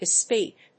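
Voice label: "bespeak", English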